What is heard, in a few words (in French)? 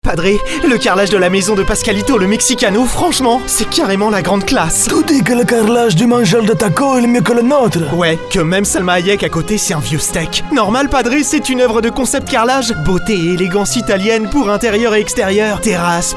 radio pub spot